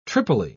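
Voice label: "tripoli", British English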